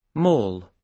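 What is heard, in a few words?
"mall", British English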